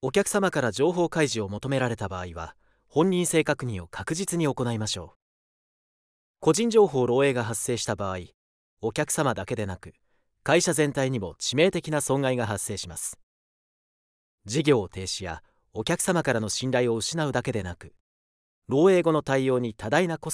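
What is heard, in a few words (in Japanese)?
クール, ナレーション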